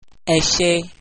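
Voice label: thanks, Yoruba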